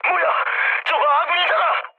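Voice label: Korean player killed ally mood high, speech; War Thunder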